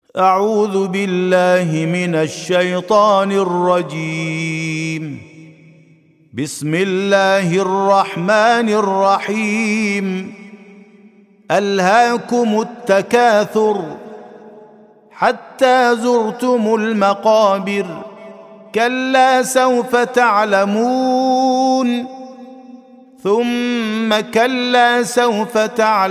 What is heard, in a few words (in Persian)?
ترتیل سوره مبارکه تکاثر